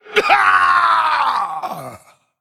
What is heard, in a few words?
Male Skeleton Death